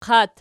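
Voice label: "qat" nominative, Archi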